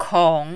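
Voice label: "kong", Mandarin, third tone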